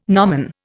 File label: "gnomon", Indian